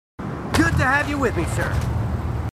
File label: marines, marine timid; h2dialogue